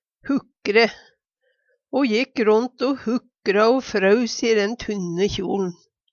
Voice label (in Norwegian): hukre, Numedalsmål